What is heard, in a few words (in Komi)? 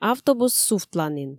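автобус сувтланін, Komi, female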